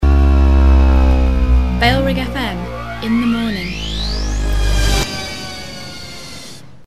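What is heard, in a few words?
female, reverse bed; bfm in the morning; bailrigg fm; bfm jingles ads RSL 1994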